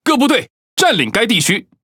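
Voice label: Speech Quick Commands Enemy Point, Taiwan; World Of Warships; ZH TW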